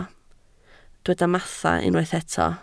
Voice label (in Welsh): "mathau", Welsh